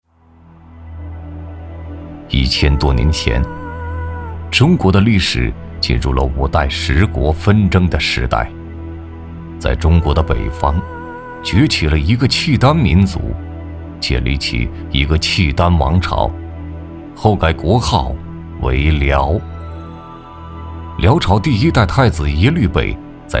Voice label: 男100号-记录-历史类纪录片辽河口 回味 悠远, 国语男声配音

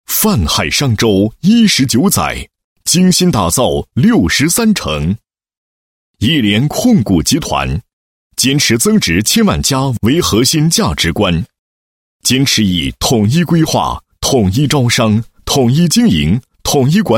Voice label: 男 企业集团 解说; 亿联专业市场运营模式解说

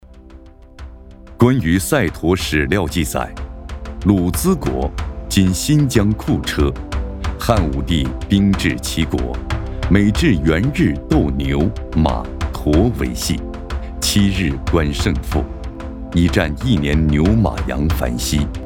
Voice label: 男 赛驼传承人

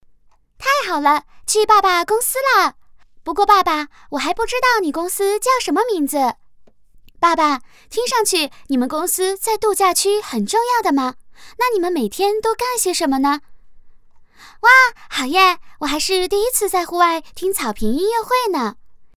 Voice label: 女, 童声女8-9岁, 父女对话

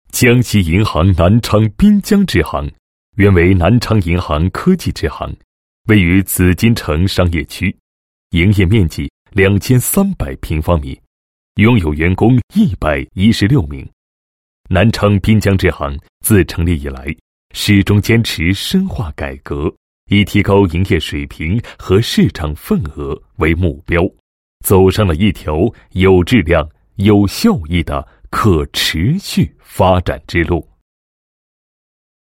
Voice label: 男-企业宣传; 江西银行支行宣传介绍

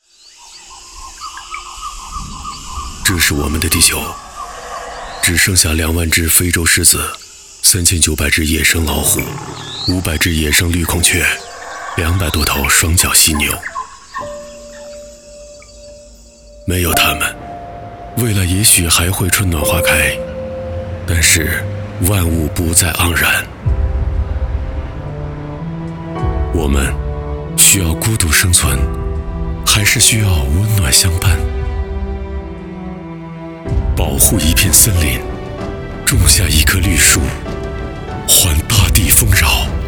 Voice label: 电台频宣, 植树节公益, 讲述独白

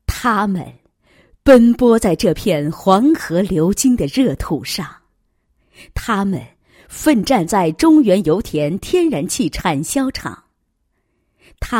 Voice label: 女 人物 宣传介绍; 最美采油女工宣传介绍

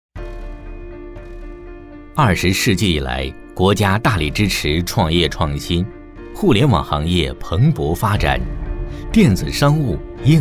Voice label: 专题, 电商, 轻松

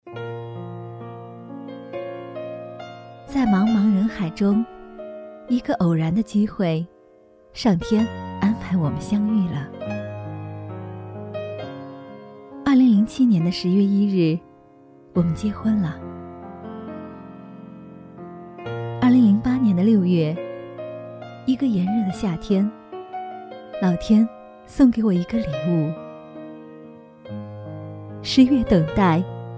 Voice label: 女 旁白 温情; 妈妈说

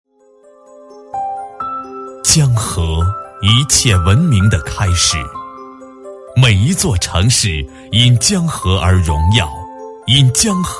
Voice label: 男40 地产广告, 国语男声配音

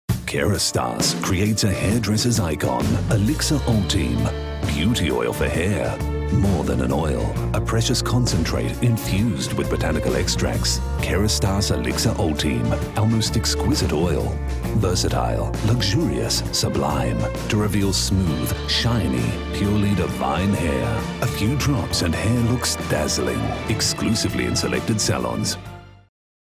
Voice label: 外籍英男, 洗发水广告; 法国卡诗

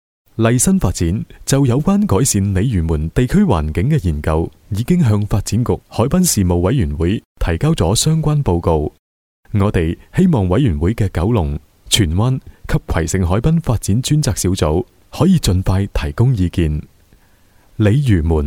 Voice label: 粤男; 鲤鱼门地区发展意向

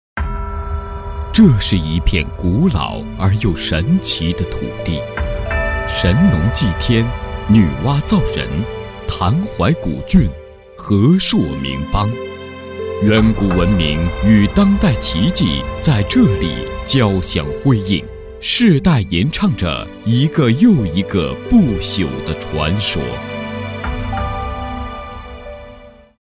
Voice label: 国语男声配音, 专题; 文明传说; 全能录音

